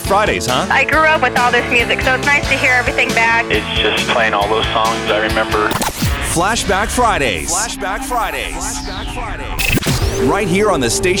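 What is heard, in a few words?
Country, Voice Over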